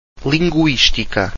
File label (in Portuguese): "linguistica", Portuguese